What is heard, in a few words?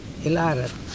"to troll", Mokilese